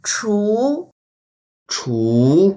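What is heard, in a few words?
"chu2", Mandarin Chinese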